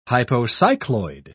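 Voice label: "hypocycloid", British English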